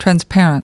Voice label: "transparent" us